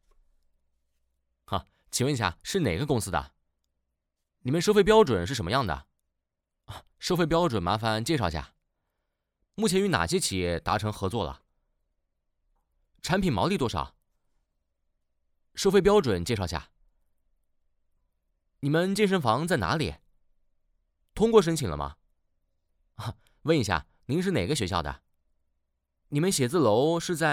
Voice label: 男 正常自然对话

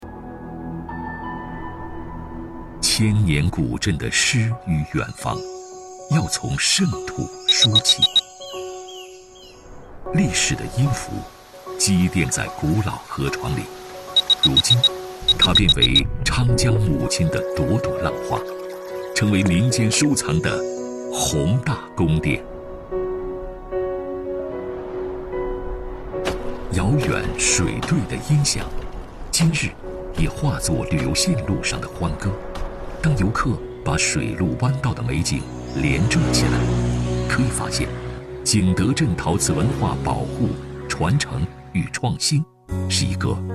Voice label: 男 纪录片 御窑风格

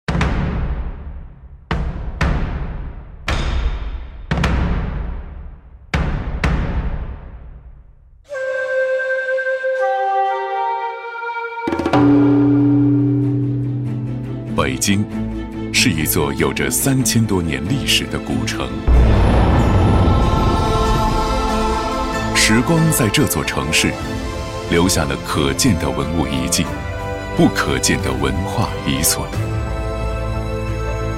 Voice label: 男 纪录片; 北京卫视; 京之轴

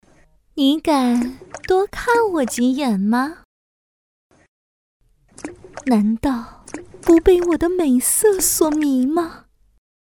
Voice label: 女 游戏角色; 甄姬

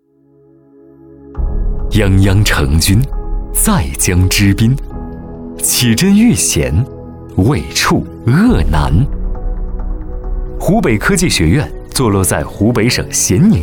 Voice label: 男 大气介绍; 湖北科技学院

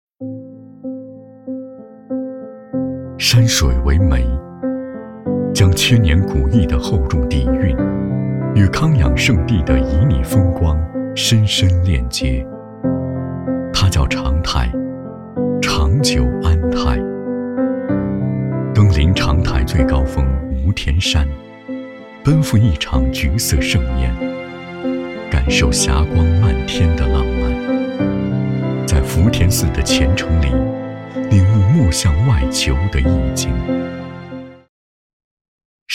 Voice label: 男, 走心品牌; 慢长泰

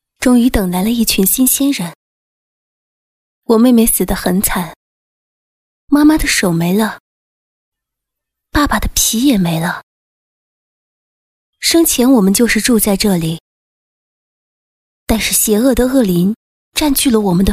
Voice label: 女-角色-恶灵缠身

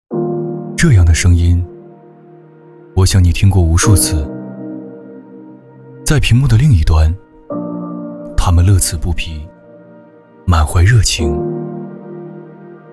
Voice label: 男 走心 让平凡的微光更加闪耀

